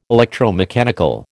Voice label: "electromechanical", American